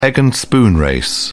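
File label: "egg and spoon race", British English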